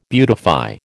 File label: "beautify", American